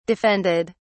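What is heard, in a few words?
"defended", British English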